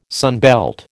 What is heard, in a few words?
"sunbelt", American